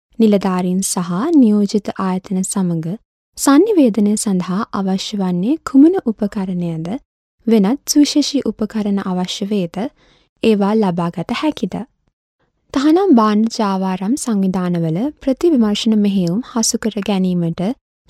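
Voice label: Sinhala female voice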